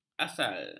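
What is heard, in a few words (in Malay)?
"asal", Malay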